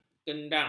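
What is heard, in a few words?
"kendang", Malay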